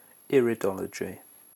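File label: "iridology", British English; pronunciations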